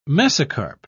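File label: "mesocarp", British English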